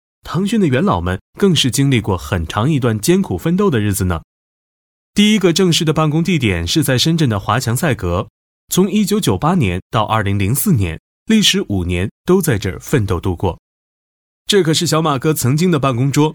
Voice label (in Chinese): 男 专题; 腾讯